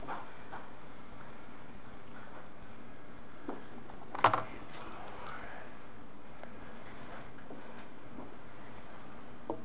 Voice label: Whisper; Oakley plantation